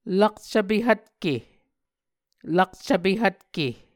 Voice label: "lakcvpe hvtke", Muscogee